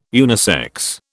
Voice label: "unisex", British

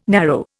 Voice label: "narrow", Indian

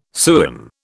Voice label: "sewin", British

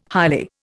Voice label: "highly", Indian

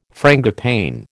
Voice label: "frangipane", American

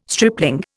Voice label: "stripling", Indian

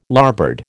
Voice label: "larboard", American

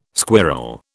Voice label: "squirl", British

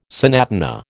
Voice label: "sonatina", American

